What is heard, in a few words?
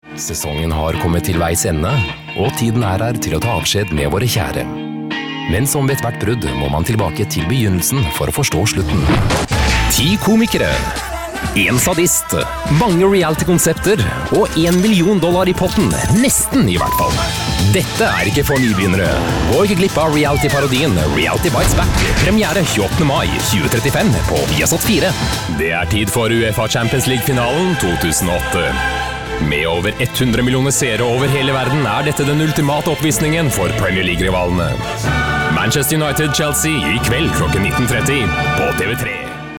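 Norwegian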